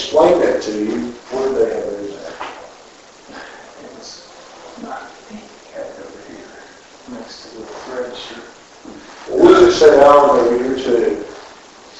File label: Unexplained Voice Inaudible 2nd Floor Hallway by apartments